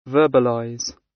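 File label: "verbalise", British; Language, linguistics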